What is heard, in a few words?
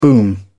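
"boom", British English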